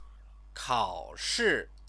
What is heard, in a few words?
"kaoshi", Chinese; 考 试; examination; noun / verb-object